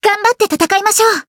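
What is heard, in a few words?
Voice encount, Japanese; violet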